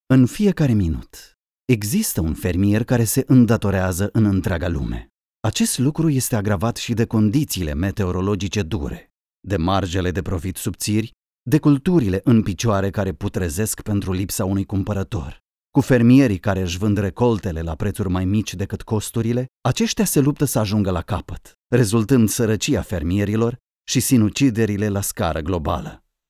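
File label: Romanian, general; GoLocalise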